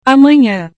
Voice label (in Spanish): "amanhã", Portugués; mañana